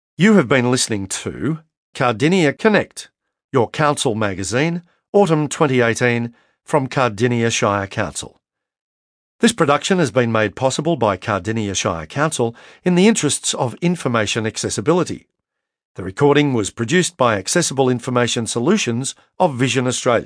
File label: closing announcement